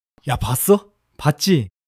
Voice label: excitement